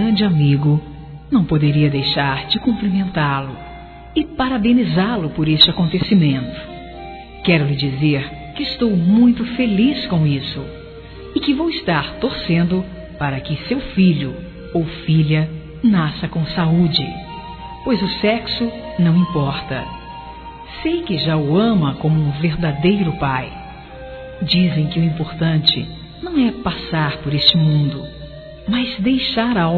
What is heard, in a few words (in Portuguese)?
Parabéns Futuro Papai Feminino, Telemensagens; Felicitações; Telemensagens 2017